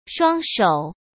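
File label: "双手" Mandarin Chinese; hsk; chinese-flashcards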